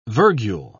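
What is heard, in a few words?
"virgule", English, British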